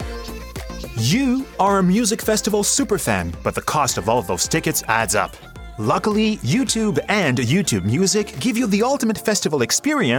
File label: ENGLISH VOICE DEMO REEL